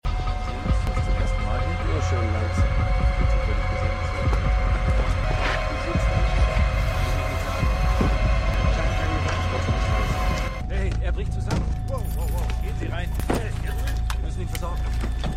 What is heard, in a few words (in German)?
Polizist Sanitaeter Funk; Severance